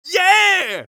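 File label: scout taunt flip fun, male voice; tf2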